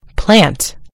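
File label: "plant", British English; gcompris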